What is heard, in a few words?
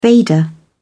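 "veda", British English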